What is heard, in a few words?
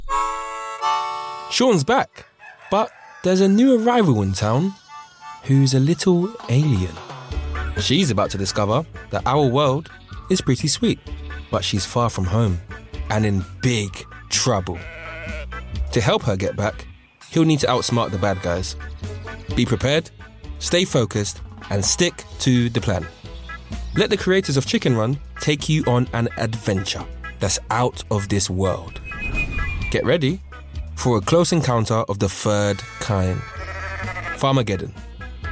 Narration